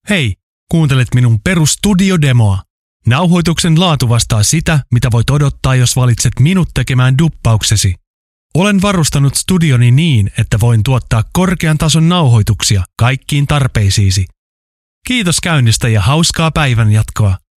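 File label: Finnish, General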